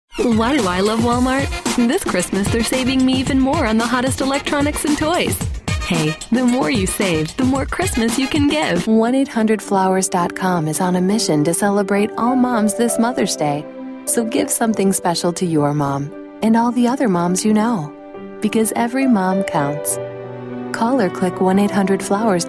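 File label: US commercial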